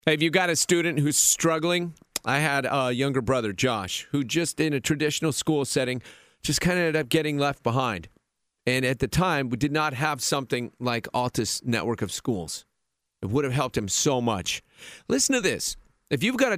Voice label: Aircheck; Morton In The Morning